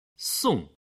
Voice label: "song", Chinese